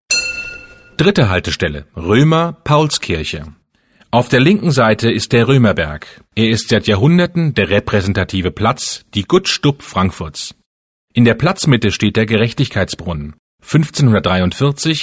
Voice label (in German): Hochdeutsch; Römer Paulskirche; Ebbelex; Entlang der Strecke